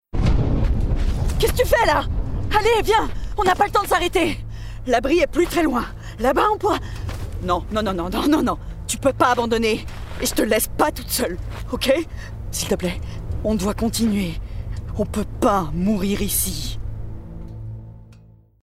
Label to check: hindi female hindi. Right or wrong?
Wrong